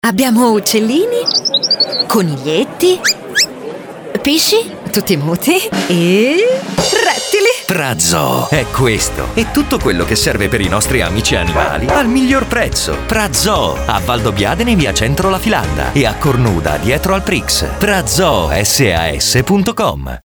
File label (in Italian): ISTITUZIONALE